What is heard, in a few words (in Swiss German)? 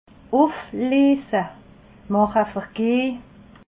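"ufleese", Alsatian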